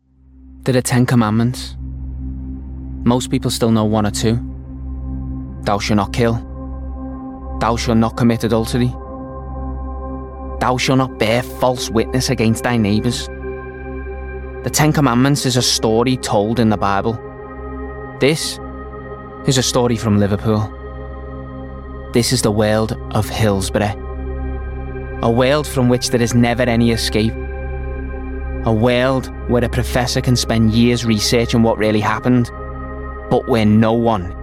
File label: Documentary